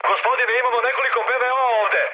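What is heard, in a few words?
Serbian damaged aa mood high, speech; War Thunder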